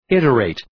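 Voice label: "iterate", English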